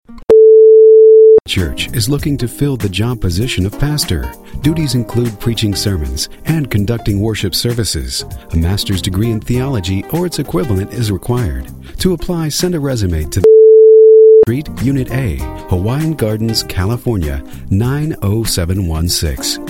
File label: Pastor Radio Ad